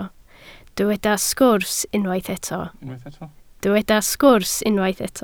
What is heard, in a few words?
"sgwrs", Welsh